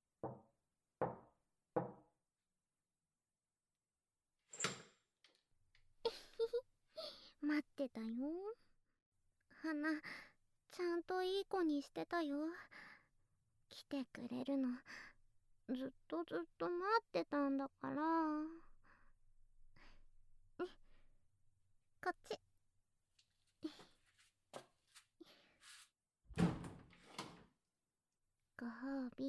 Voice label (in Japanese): 環境音無し